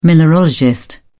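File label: "mineralogist", British English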